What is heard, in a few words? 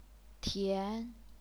"tian2", chinese pinyin